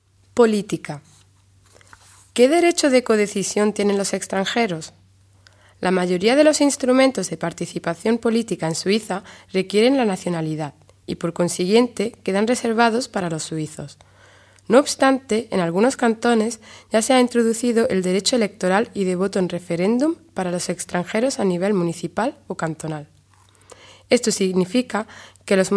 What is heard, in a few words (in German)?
spanisch; politik